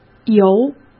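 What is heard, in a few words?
"游", Mandarin Chinese; hsk; chinese-flashcards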